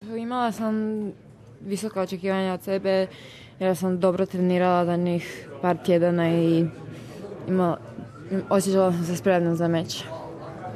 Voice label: croatian; dona vekic australian open 2016

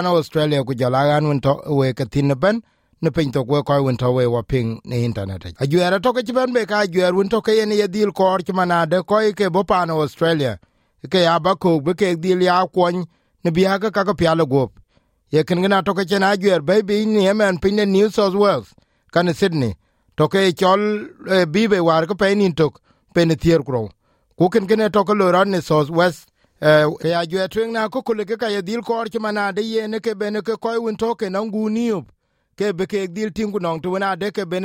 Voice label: dinka; Five-year health plan aimed at those from refugee has been launched; SBS Dinka

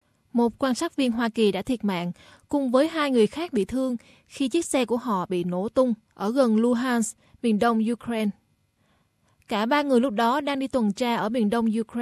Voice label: vietnamese; SBS Vietnamese; Quan sát viên Hoa Kỳ thiệt mạng ở miền Đông Ukraine

